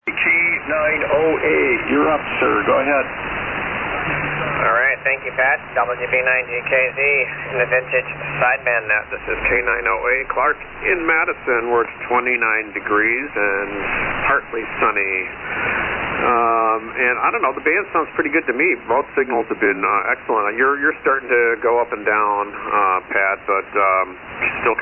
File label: Yaesu FT-101B & Turner +3 Mic; Madison,Wi.